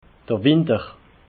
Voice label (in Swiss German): "winter", alsace